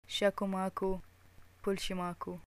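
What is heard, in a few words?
greeting how are you, arabic, Iraqi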